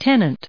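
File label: "tenant", English, us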